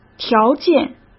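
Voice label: "条件", Mandarin Chinese; hsk; chinese-flashcards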